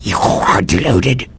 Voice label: answer, vort speech; hl2